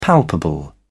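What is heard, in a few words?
"palpable", British English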